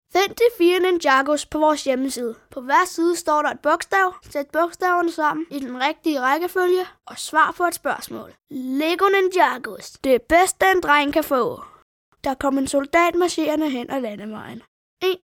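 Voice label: Danish child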